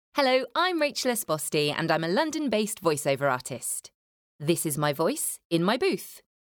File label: voiceover booth